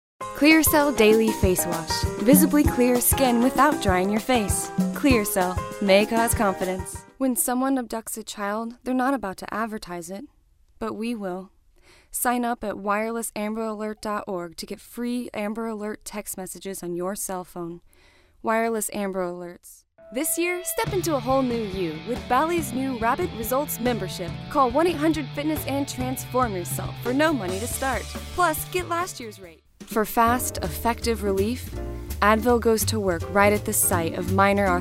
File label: Commercial, Female voices